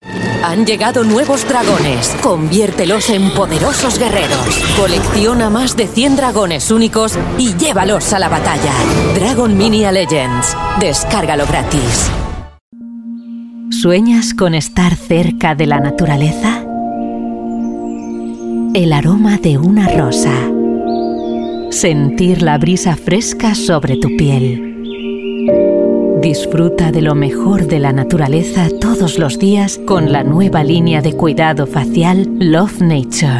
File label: Euro Spanish Female Voice